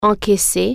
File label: cash, french